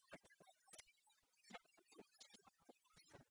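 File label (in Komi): кымӧртӧм небесаысь позьӧ аддзыны сизима кодзувсӧ, Komi, female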